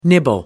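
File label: "nibble", British English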